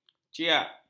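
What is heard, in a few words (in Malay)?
"ciak", Malay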